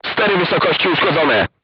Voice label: voice message vertical aiming drive damaged, crew tanks polish